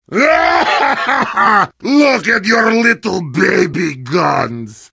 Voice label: heavy taunts; video games; source engine; tf